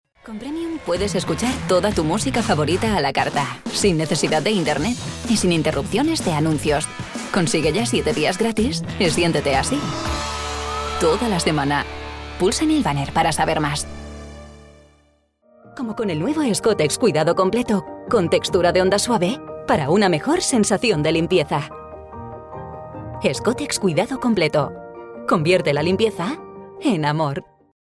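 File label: Spanish Spain, female, voice over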